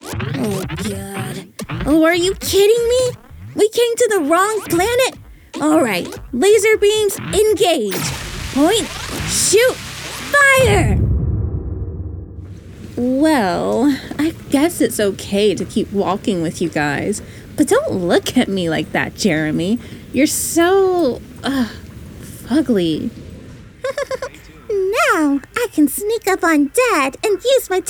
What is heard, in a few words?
Animation